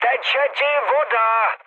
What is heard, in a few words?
damaged water, speech cze, mood med; War Thunder